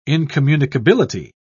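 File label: "incommunicability", British English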